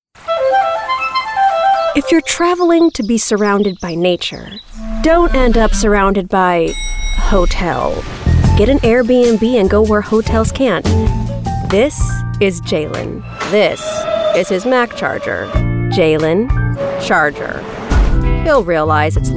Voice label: Commercial